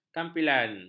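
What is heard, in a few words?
"kampilan", Malay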